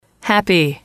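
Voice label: "happy", English, female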